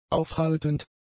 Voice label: "aufhaltend", German; adjectives